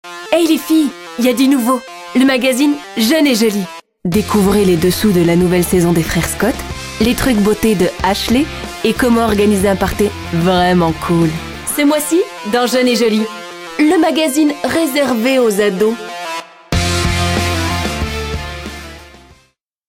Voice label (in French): Demo Voix Jeune Et Jolie